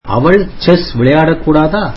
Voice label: tamil cant she play chess